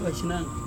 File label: "aisinang", husband, Serewen